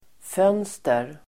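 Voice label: "fönster", Swedish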